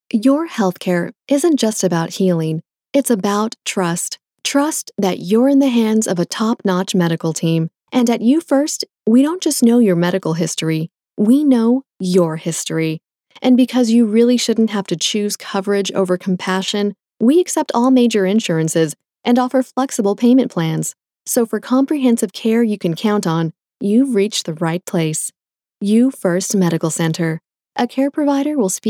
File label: Sincere